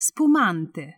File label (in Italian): "Spumante", Italian; happy birthday in italian